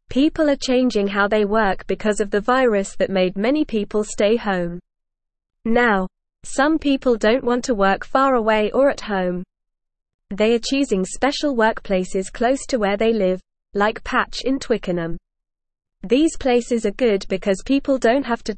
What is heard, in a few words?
English Lower Intermediate NORMAL Reading; People like working close to home in co working s; English Newsroom